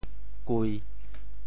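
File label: "kui1", Teochew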